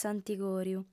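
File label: "s'antigòriu", female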